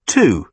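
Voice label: "two", British English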